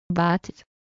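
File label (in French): "batteuse" French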